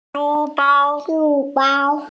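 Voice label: "shu1 bao1", Mandarin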